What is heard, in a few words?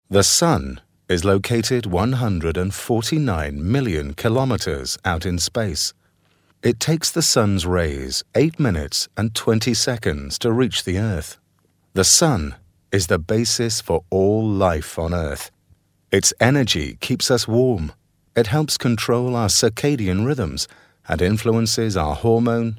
uk informative